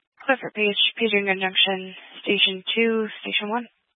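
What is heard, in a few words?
Fire Dispatch, scanner audio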